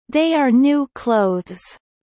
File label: Kelly low